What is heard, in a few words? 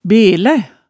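"belæ", Hemsedal dialect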